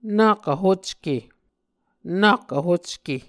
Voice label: "nak vhocke", Muscogee